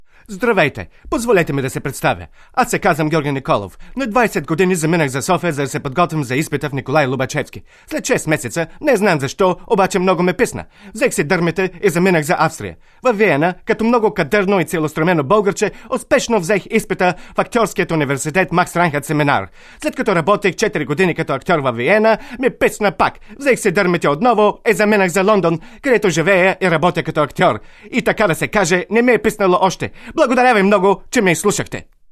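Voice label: Bulgarian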